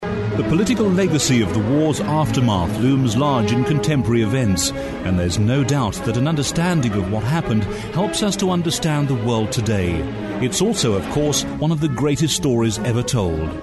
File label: narration